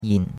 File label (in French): "yin3", chinois; Cours-de-Chinois